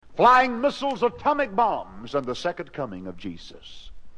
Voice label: missiles from jesus, evangelists and preaching and religious; VOCALS and SPOKEN WORD